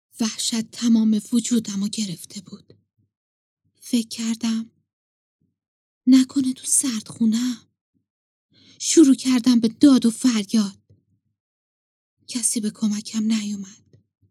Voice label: horror; ghabr